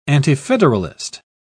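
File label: "antifederalist", British English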